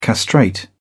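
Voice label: "castrate", British English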